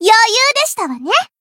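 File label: Voice zodiac win second, Japanese; suzette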